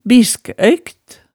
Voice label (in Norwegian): "biskøykt", hemsedals maalet tale